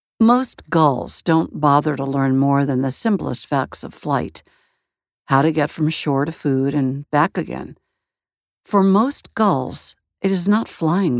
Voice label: Fiction Metaphysical Visionary, Audiobook Narration, 3 characters